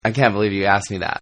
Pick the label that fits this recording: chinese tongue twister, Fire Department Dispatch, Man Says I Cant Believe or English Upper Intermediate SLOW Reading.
Man Says I Cant Believe